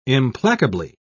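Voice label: "implacably", British English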